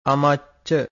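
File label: "amacca", Pali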